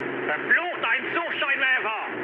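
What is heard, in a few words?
German searchlite mood low, speech; War Thunder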